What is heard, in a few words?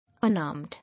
"Unarmed", English